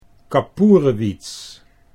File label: "kapoerewitz", Dutch